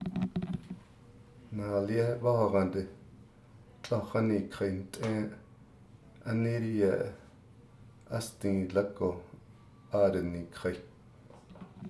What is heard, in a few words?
navajo WE went to the trading post